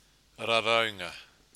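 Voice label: "raraunga", Māori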